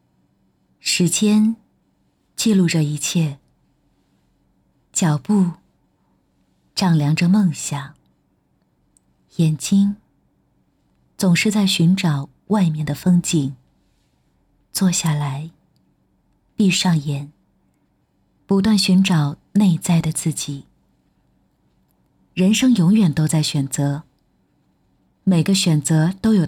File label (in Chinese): 女, 旁白; 以梦为马